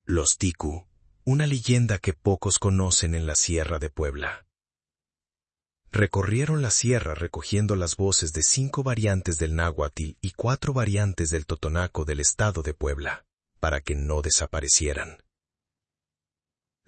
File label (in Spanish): narracion trailer espanol; Codigo Ancestral